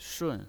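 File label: "shun", Mandarin Chinese pinyin, fourth tone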